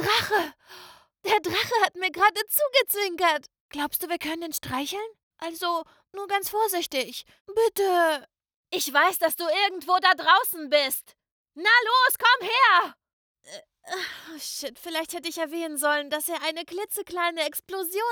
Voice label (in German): Gaming Voice, Vorstellung